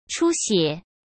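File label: "出血", Mandarin Chinese; chinese flashcards; hsk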